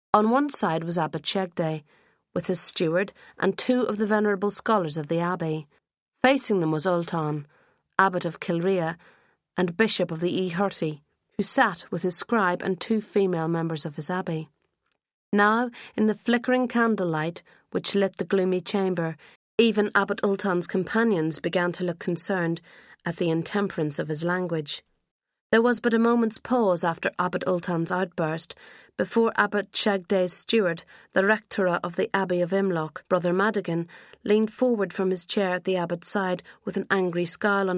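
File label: Drama; 20s-40s. Male. Northern Irish. Home Studio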